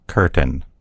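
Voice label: "curtain", American English; gcompris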